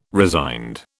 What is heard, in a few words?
"resigned", British